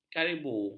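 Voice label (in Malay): "karibu", Malay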